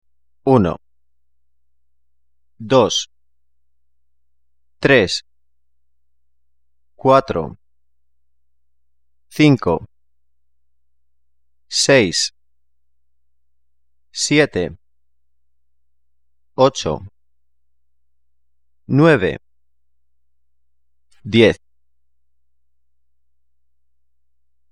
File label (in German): spanisch